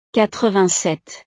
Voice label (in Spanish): "quatre-vingt-sept", frances